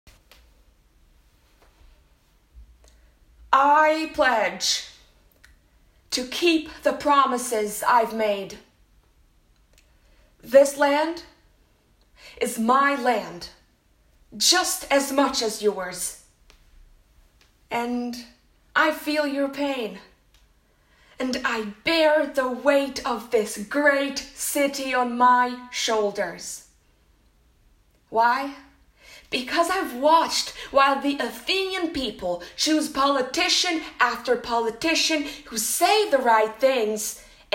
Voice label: monologue